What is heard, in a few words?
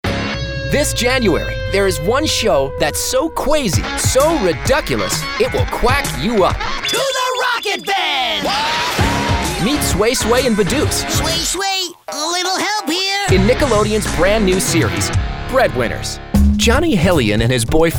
Eng US Variabel